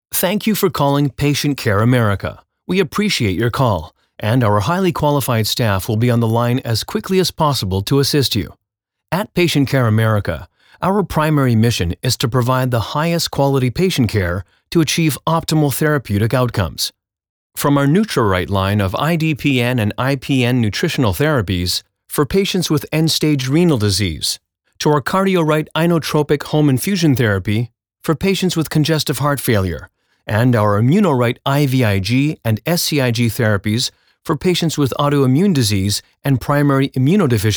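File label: English Canadian interactive voice response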